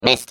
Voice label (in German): Defective Turret defect fail, de; turret return